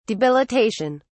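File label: "debilitation", British English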